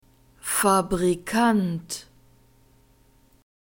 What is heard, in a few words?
"fabrikant", German; masculine